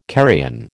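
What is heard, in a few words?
"carrion", American English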